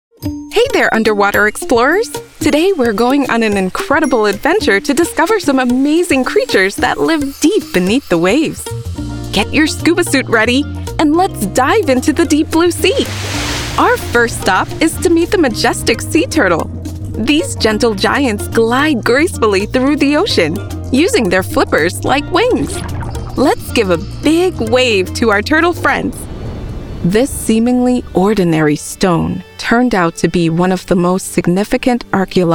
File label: E-Learning